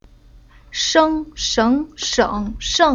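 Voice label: "Sheng", Chinese syllable; Уроки фонетического блока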